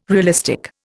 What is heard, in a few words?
"realistic", Indian